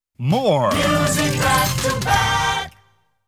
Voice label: More Music Back To Back, jingles